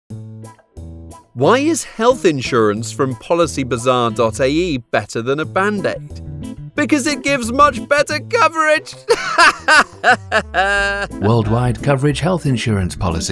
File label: ENG MVO, radio spot; PB Health spot